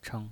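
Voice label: "cheng", Mandarin Chinese pinyin voice, neutral tone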